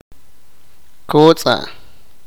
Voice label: sipakapense; flor

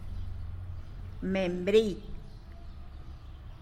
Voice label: "membriy", tlacolula; quince

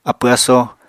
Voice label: "apw-asó", Apatani

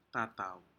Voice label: "ta tau", Aneityum; fish

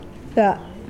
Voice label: Mokilese forearm

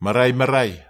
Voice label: "maraimarai", netwar

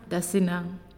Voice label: "Good", Naasioi

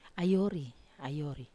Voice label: "ayori", Wamesa

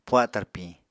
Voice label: "puha tarpiñ", Apatani

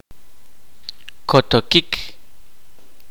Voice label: kaqchikel; twisted

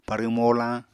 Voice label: "parw-molañ", Apatani

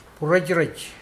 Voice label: dirty, Mokilese

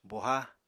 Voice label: "boha", Apatani; Material Culture

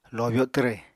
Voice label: "lobyo twre", Apatani

